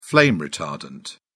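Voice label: "flame-retardant", British English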